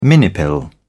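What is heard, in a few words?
"minipill", British English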